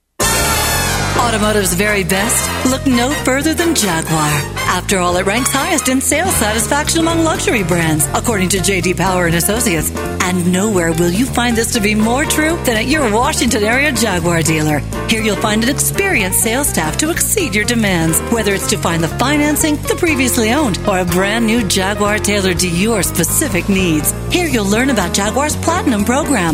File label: spot; jaguar